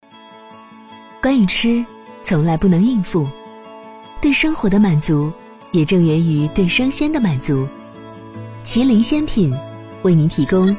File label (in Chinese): 女 旁白 企业宣传 温和亲切; 奇麟鲜品2020企业宣传